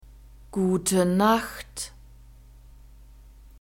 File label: "gute nacht", German